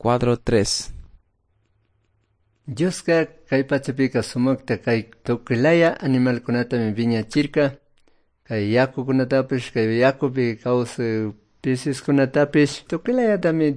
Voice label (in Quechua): good news quichua cayambe